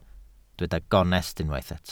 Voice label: "gonest", Welsh

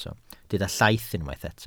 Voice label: "llaith", Welsh

